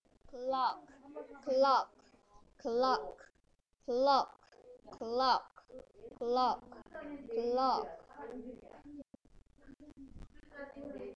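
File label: "clock", spoken word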